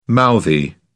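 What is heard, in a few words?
"mouthy", British English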